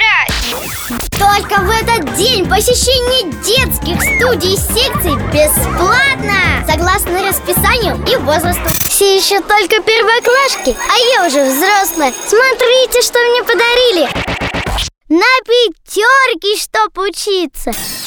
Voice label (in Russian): детский голос